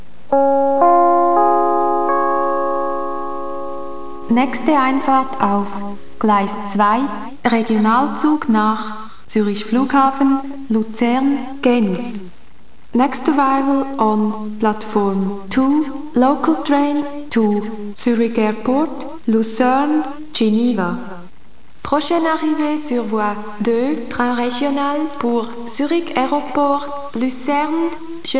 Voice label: sbb announcement